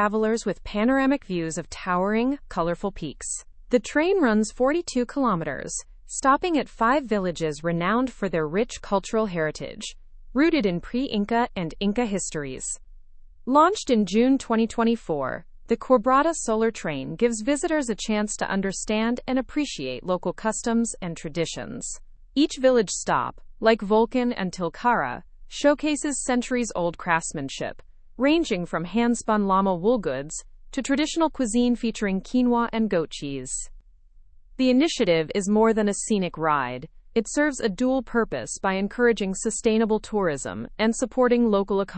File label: learn english through news upper intermediate; ride argentina s eco friendly solar powered train